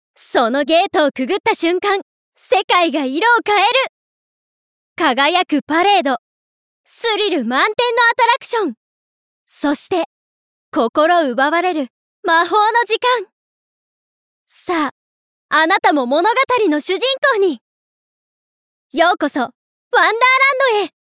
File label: Narration Theme Park, ナレーション②テーマパーク